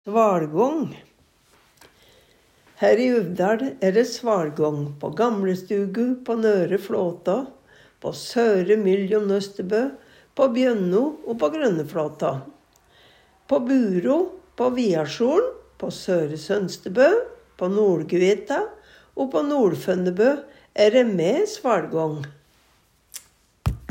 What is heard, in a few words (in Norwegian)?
svaLgång, Numedalsmål